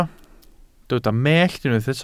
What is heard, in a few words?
"mellt", welsh